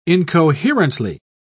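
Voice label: "incoherently", British English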